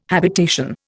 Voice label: "habitation", Indian